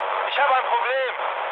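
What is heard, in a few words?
German aircraft damaged mood med, speech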